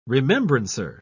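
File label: "remembrancer", British English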